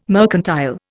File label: "mercantile", Indian